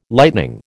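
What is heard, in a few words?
"lightning", American English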